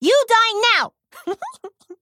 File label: Female Pandaren Attack